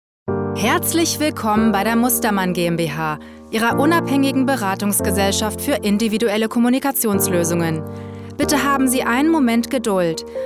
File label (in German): de telefonansage